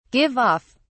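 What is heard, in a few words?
"give off", English, British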